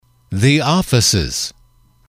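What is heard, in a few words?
"the offices", English, male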